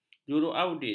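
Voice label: "juruaudit", Malay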